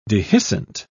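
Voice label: "dehiscent", British English, dictionary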